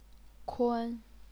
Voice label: "kun1", chinese pinyin